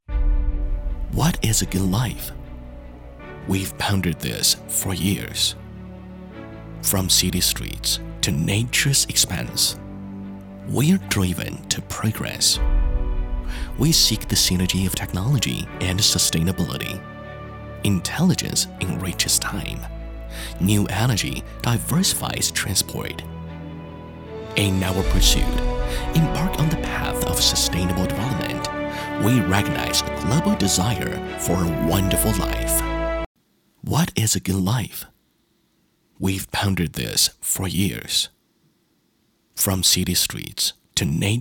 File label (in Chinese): 男 英语 中青年 走心品牌宣传